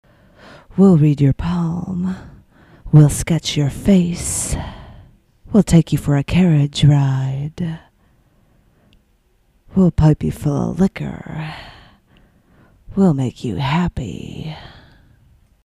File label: jackson square bourbon street poem vocals; changing gears